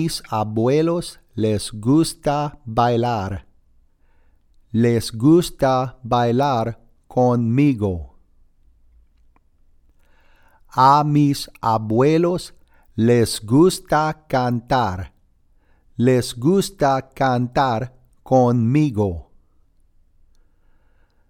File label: Pronunciation Guide; Mis Abuelos